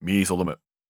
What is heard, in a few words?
neutral short